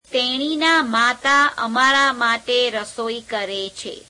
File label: gujarati her mother cooks for us; [3rd possessive pronoun]; તેણીના માતા અમારા માટે રસોઈ કરે છે; [teeṇeīneā meāteā ameāreā meāṭe reseoī kere chhe]